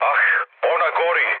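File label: Serbian on fire mood low, speech; War Thunder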